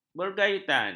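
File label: "bergayutan", Malay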